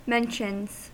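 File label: "mentions", American English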